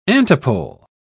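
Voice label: "antipole", British English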